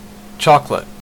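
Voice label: "chocolate" Canadian English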